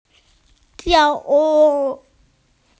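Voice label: "tiao wu" (dance), Chinese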